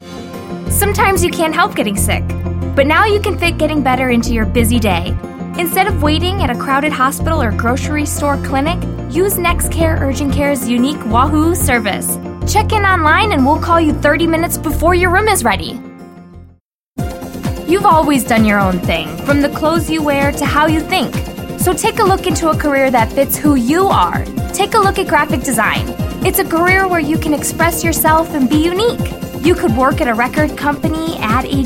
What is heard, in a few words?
voiceover female